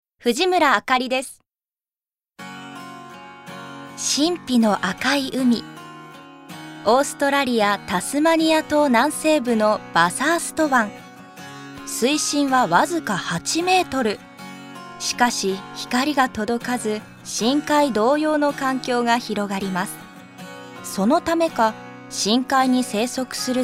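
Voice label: narration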